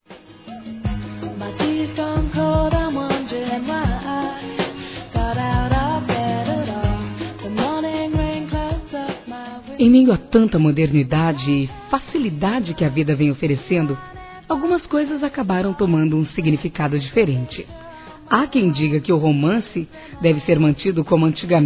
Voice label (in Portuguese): Namoro Telefone Feminino; Telemensagens; Telemensagens 2017